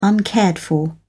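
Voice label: "uncared for", British English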